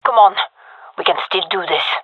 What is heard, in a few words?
round losing, fem; vo